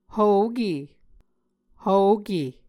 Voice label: "hvoke", Muscogee